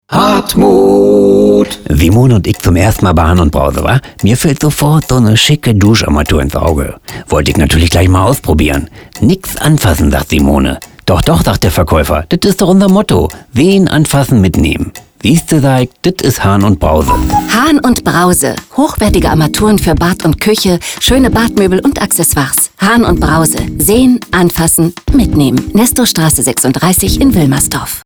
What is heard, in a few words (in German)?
Wohnen Radiowerbung